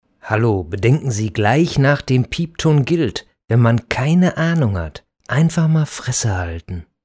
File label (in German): anrufbeantworter; dieter nuhr